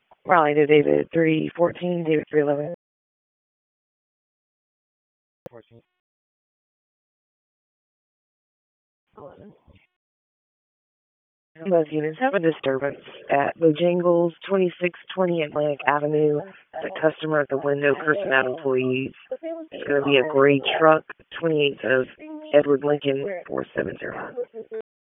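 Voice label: Police Northeast District Disp, radio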